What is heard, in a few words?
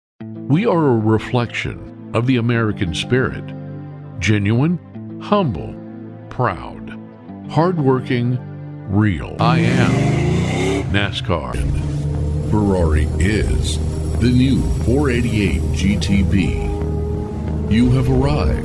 Automotive, Commercial Demo